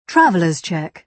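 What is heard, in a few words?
"traveller s cheque", British English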